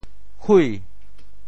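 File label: "hui2", Teochew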